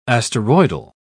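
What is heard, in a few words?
"asteroidal", British English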